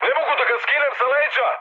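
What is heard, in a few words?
Serbian aircraft damaged mood high, speech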